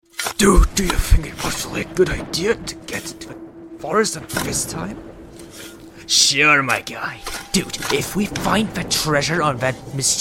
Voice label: Scary little child